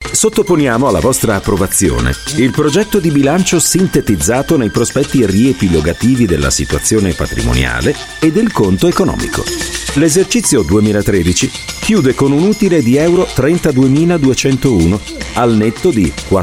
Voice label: Italian